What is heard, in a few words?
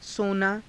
"sonaa", Hindi